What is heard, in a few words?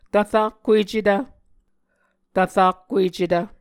"tvrakkuecetv", Muscogee; Mvskoke Words with Repeated Consonants; Onvkv Ohhvyayices; A Dictionary of Creek/Muskogee; SIL International